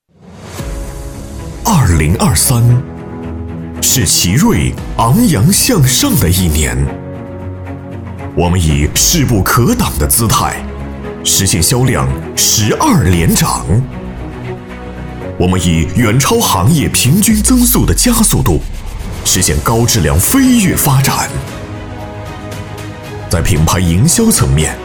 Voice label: 男 企业宣传 力度激情; 奇瑞汽车; 大气主流 激情力度

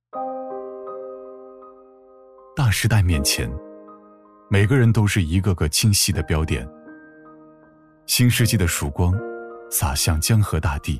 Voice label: 男 走心宣传 质感磁性

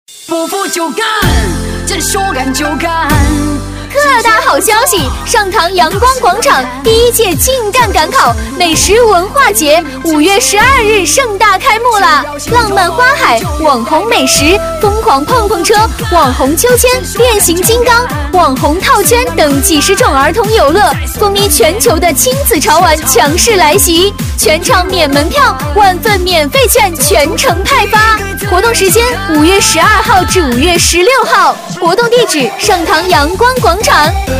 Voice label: 激情活泼女 美食文化节盛大开幕; 激情力度

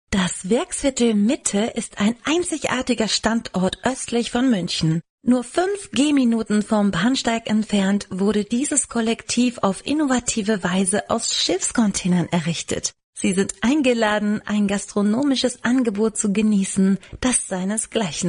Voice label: 德语女声

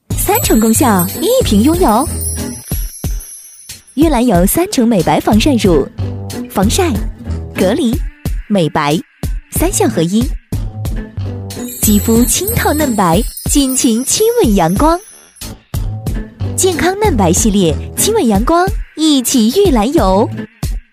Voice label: 女 品牌广告 御姐俏皮, 幽默搞怪; 玉兰油

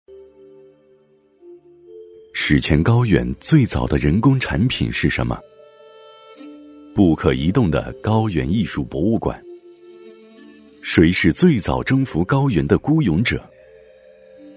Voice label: 男 纪录片 人文历史; 西藏考古新发现; 抒情温暖 自然诉说

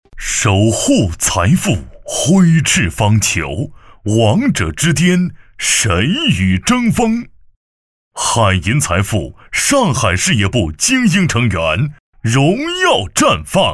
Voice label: 男 广告配音 力度厚重; 守沪财富; 厚重力度

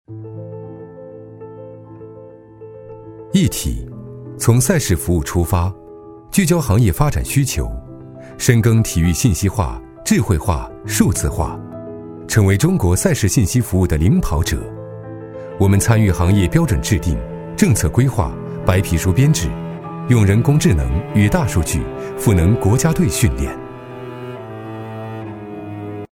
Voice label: 男, 专题解说片, 年轻科技; 智慧体育; 中英双语 科技感

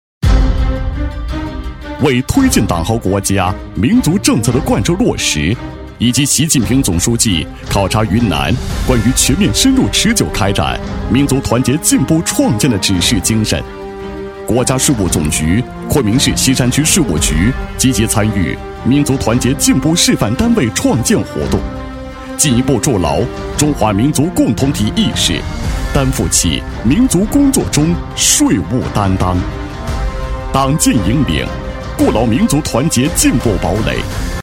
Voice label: 男 大气奋进, 厚重大气 大气浑厚; 民族团结共繁荣

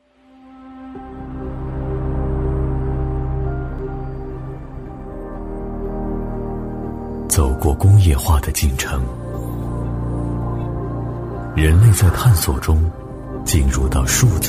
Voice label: 男 走心宣传 大气品质走心; 中国电信; 大气沉稳

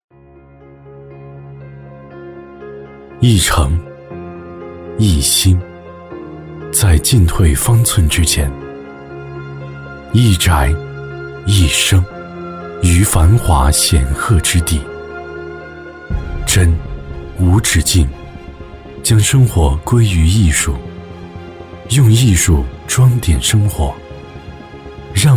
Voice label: 男 广告 房产 品质讲述, 抒情温暖 自然诉说; 龙湖御湖境

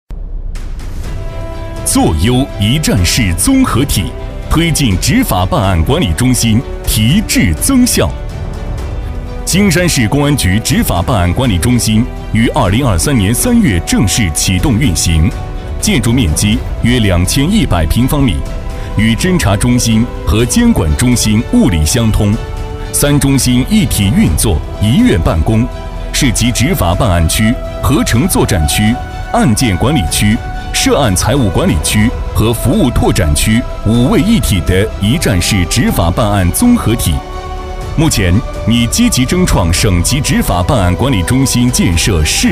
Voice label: 男 工程汇报 大气激昂; 一站式; 激情有力 大气浑厚

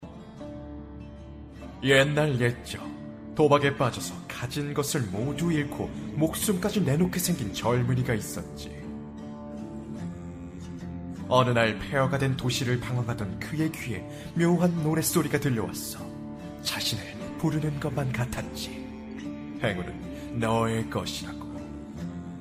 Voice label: 韩语男声

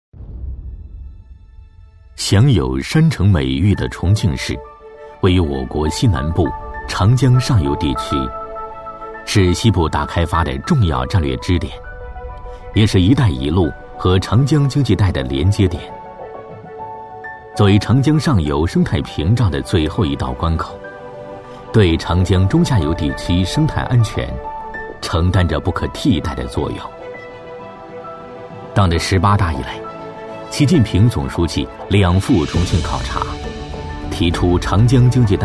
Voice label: 男, 城市宣传; 男186-历史沧桑 质感磁性; 重庆五院

